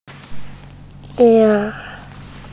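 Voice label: "re4", Mandarin Chinese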